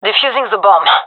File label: defusing, gendermerie fem; vo